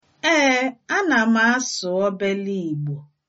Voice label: yes a little, igbo